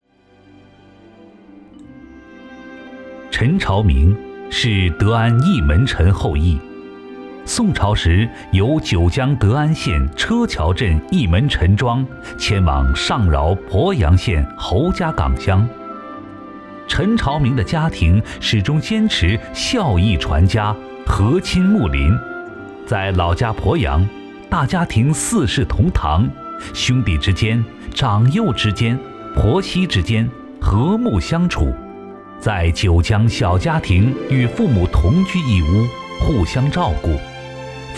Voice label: 男 专题 好家风; 传承义门陈氏好家风

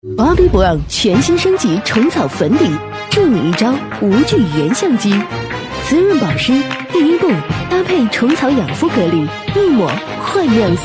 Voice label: 女 广告 酷感御姐; Bobbi Brown x 小红书

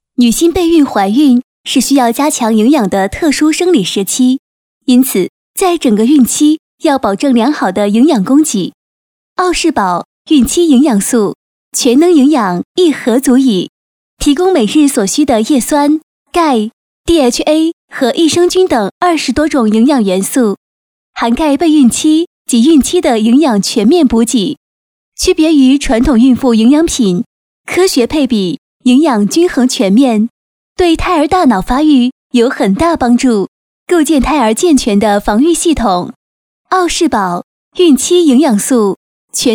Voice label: 女 产品广告; 奥适宝

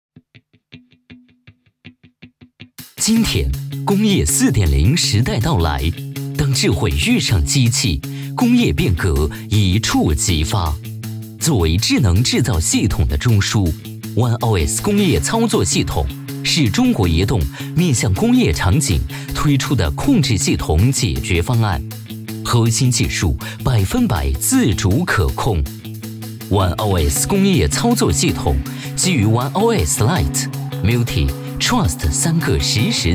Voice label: 男,解说,工业操作系统

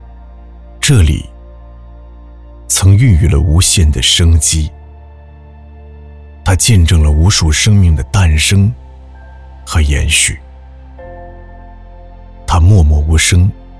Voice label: 男 纪录片 人文风景; 克拉玛依

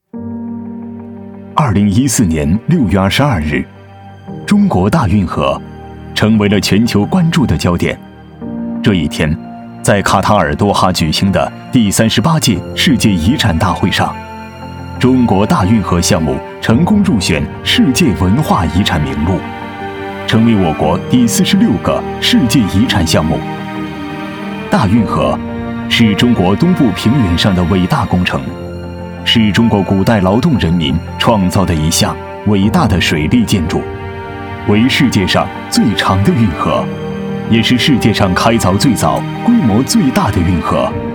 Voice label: 男 走心旁白 汇报; 大运河汇报

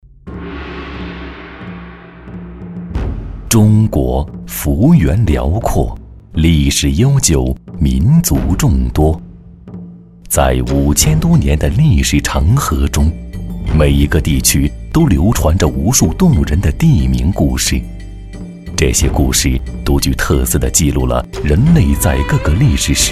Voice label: 男 宣传片; 赶驴牲

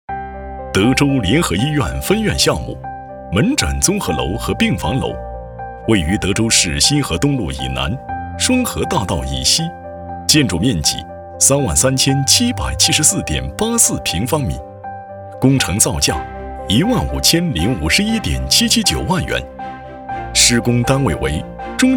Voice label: 男 工程汇报类 医院项目; 德州联合医院项目

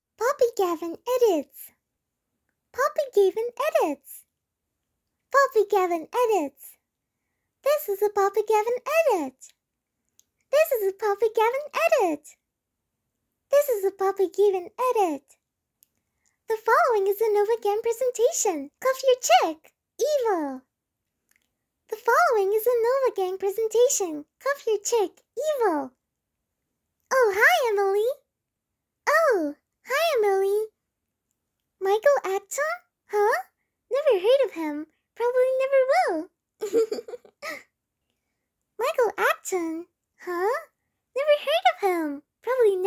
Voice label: 外籍女声,英式童声 活泼俏皮

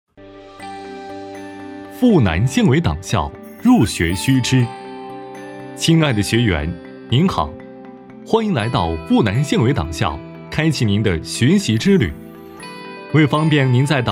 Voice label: 男 介绍, 党校入学须知

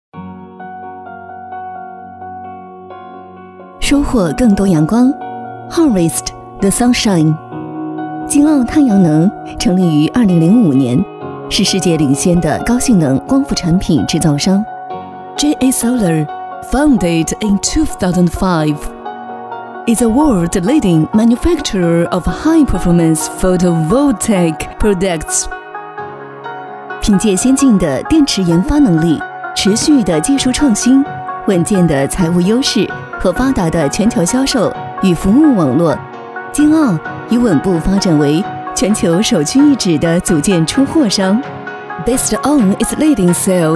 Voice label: 女 中英专题; 晶澳

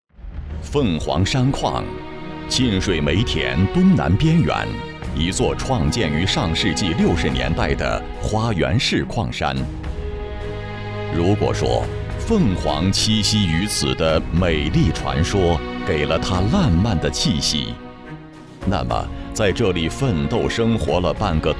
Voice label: 男 纪录片

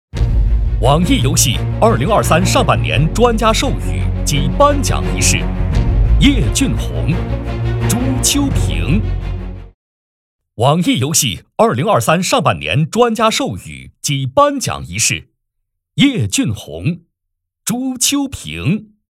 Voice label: 男 游戏; 网易游戏

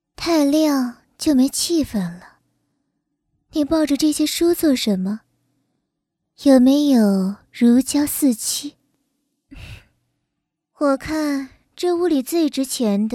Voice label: 女 游戏; 焰灵姬

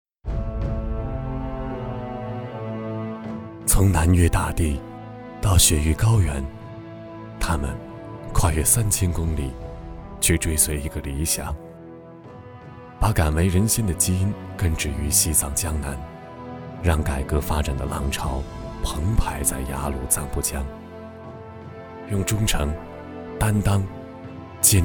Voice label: 男, 纪录片, 雅鲁藏布江, 低沉, 娓娓道来

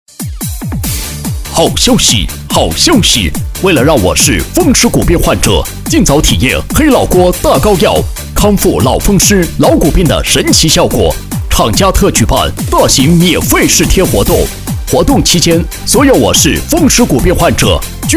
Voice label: 男 药品 激情震撼

